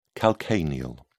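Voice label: "calcaneal", English, British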